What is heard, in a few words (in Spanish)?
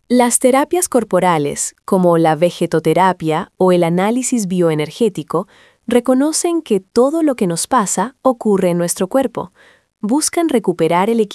locucion